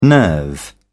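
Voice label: "nerve", British English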